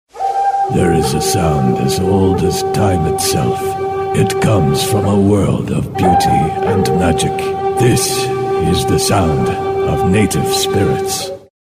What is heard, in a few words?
NATIVE AMERICAN accent voiceover